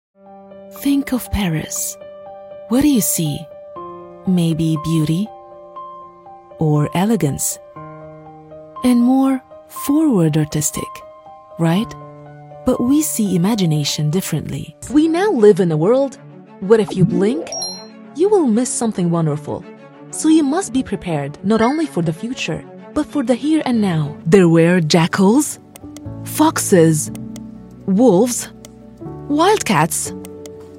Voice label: english, english female voices arabic accent